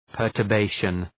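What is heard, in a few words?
"perturbation", English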